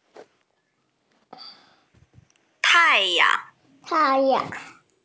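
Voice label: "tai yang" Chinese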